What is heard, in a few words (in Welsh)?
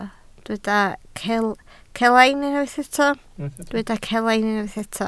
"celain", Welsh